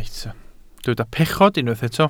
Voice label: "pechod", Welsh